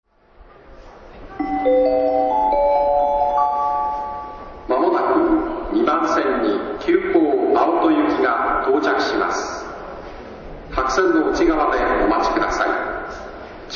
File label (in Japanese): 急行 青砥行き接近放送 東日本橋駅, 都営浅草線の接近放送です。; 電車走行音